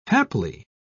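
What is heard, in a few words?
"haply", British English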